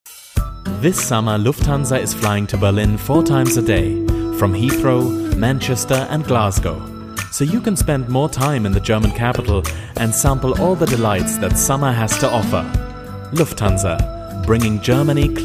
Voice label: english with german accent